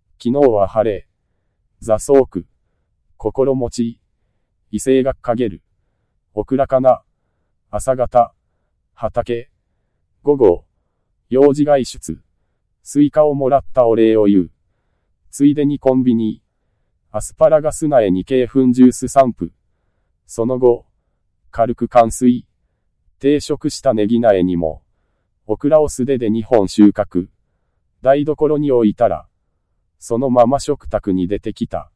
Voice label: MALE VOICE